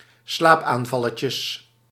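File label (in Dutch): "slaapaanvalletjes", Dutch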